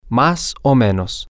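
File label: male, spanish; somewhat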